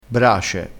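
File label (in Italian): "brace", dialettale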